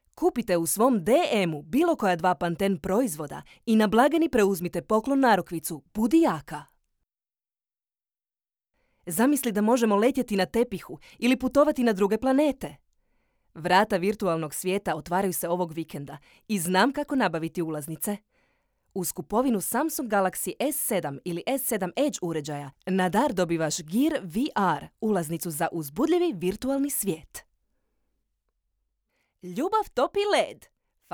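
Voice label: croatian female commercial, voice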